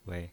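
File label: "wei", pinyin voice, neutral tone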